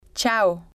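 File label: "chao", spanish, female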